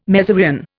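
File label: "mezereon", English, Indian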